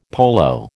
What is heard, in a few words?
"polo", American